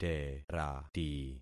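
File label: "terati", concatenated syllables; Affixing experiment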